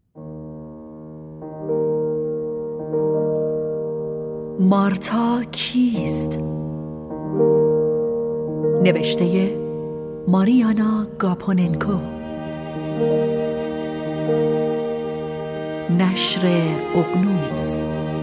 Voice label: announce